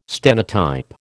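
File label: "stenotype", American English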